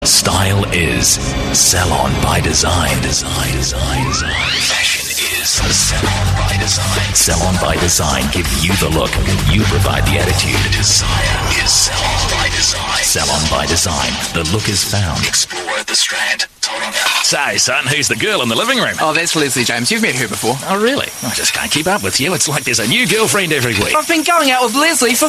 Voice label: NZ English